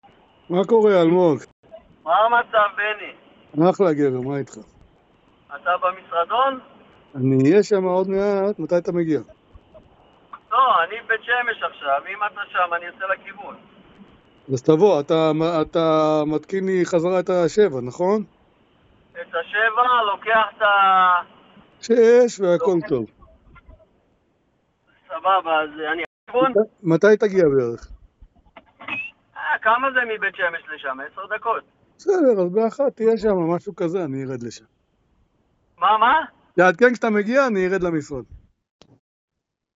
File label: Call recording